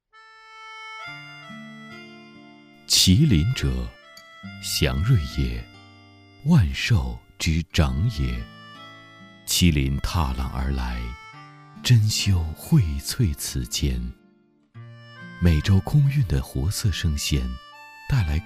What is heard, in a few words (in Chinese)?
美食宣传, 温婉; 珍馐美馔